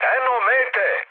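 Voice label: Serbian near waypoint mood high; War Thunder; speech srb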